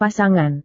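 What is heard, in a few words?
"pasangan", Indonesian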